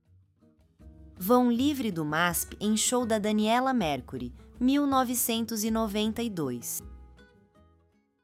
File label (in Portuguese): narracao; vao livre do masp